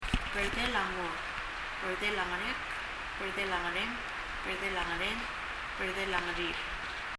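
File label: "berdel a ngor" Palauan, lip; 唇; 発音; 身体の各部位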